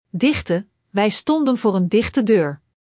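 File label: "dichte", Dutch; Spelling Gr4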